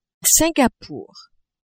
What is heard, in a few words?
"Singapour", French; pays majeurs